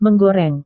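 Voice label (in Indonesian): "menggoreng", Indonesian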